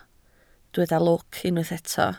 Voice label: "lwc", Welsh